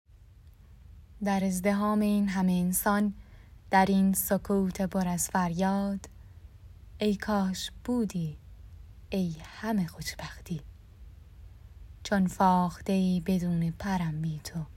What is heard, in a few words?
narrator